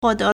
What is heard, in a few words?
"huo4 de2", Chinese